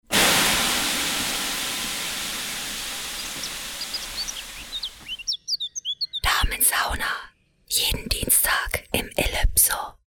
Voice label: Werbung Reminder; Elypso

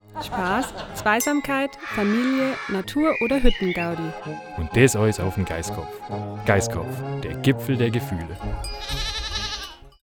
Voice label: Werbung Reminder; Irlbacher

